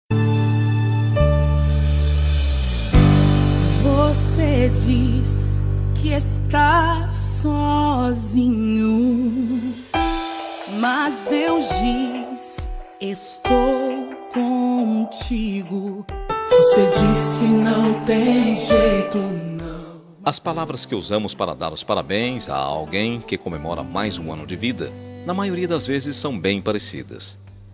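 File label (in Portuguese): ANIV NEUTRO SING male, telemensagem aniversario neutro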